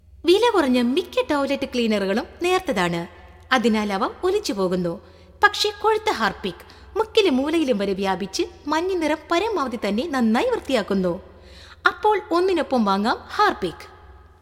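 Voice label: radio malayalam; harpic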